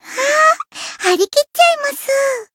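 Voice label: Voice chant, Japanese; soira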